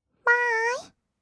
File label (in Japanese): バーイ, kawaii voice; ASMR; 挨拶; 1200 kawaii voice!!